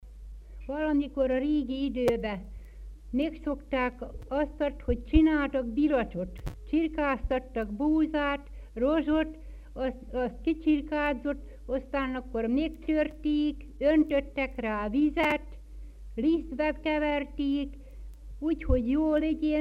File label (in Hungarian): nyelvjaras gyujtesek